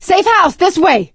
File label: safe spot ahead, survivor voice; Left 4 Dead 2; producer